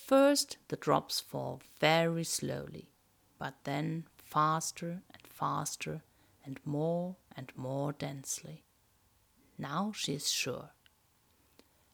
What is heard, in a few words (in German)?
Hörprobe Rain reintext langsam engl